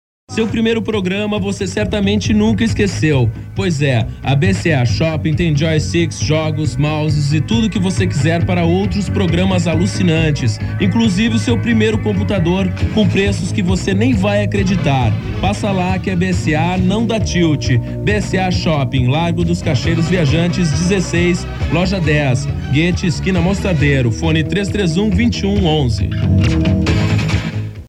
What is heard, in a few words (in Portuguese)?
spot; IpanemaFM